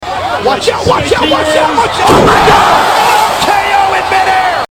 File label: taunt watch out; 21st and dank memes; phx new taunts pack 2